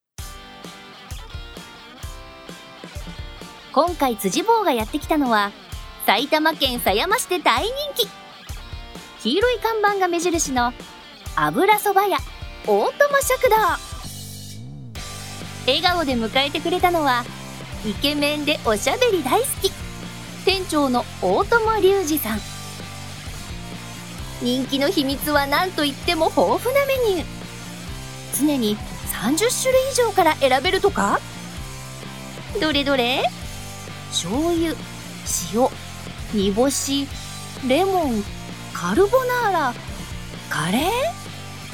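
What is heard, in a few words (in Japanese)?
ナレ, ボイスサンプル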